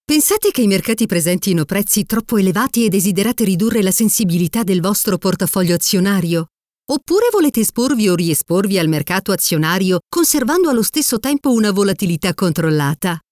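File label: Italian, female, elearning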